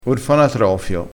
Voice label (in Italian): "orfanatrofio", crespinese